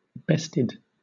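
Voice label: "bested", British English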